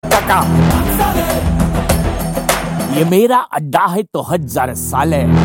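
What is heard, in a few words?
hindi dialogue; ringtones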